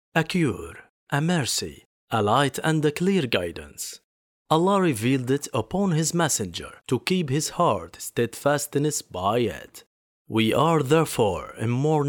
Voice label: English